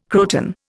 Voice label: "croton", Indian